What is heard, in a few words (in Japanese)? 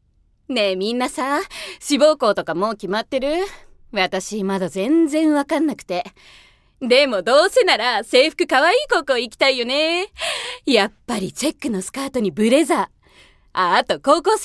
ティーン女子